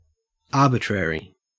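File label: "arbitrary", Australian English; pronunciations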